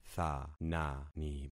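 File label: "thanani", concatenated syllables; Affixing experiment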